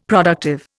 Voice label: "productive", Indian; Dictionary

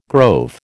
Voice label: "grove", American

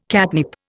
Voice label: "catnip", Indian

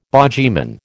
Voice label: "bogyman", American

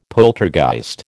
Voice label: "poltergeist", American

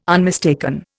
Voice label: "unmistaken", Indian

